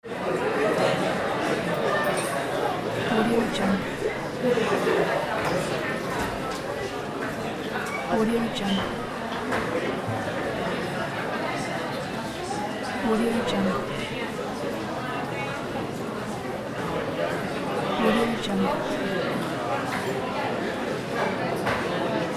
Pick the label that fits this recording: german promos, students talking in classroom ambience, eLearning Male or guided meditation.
students talking in classroom ambience